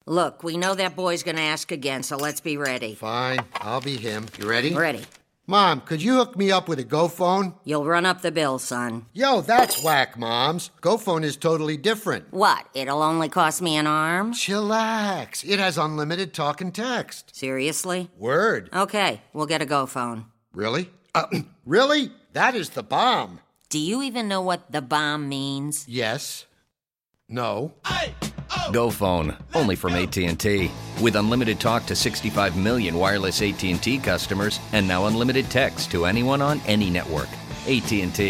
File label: Radio Commercial; AT&T; 'Roleplay'